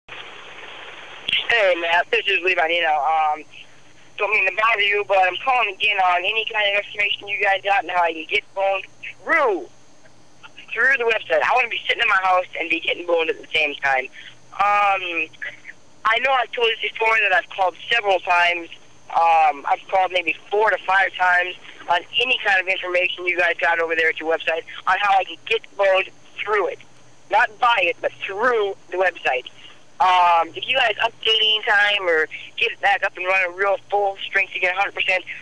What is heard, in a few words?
Voicemails